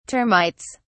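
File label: "termites", British English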